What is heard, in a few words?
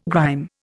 "grime", Indian